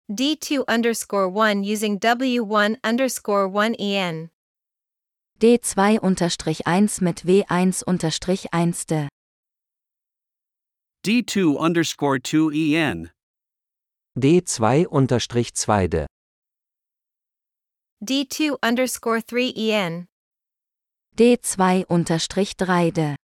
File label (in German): Dialog mit Uebersetzungen